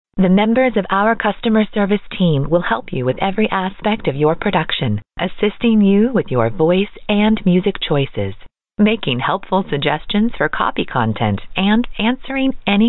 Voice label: female